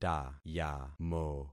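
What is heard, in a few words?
"tayamo", concatenated syllables; Affixing experiment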